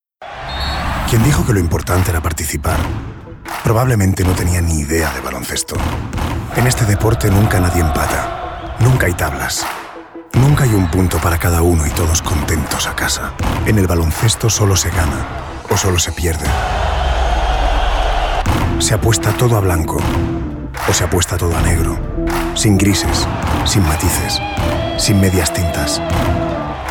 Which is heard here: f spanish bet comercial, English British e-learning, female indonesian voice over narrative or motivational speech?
f spanish bet comercial